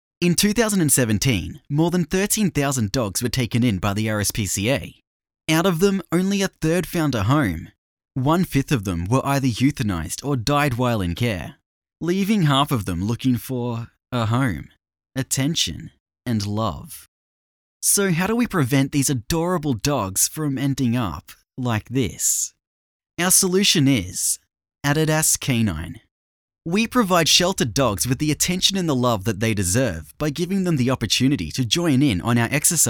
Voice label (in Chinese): 外籍英男, 自然叙述